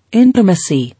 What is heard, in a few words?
"Intimacy", English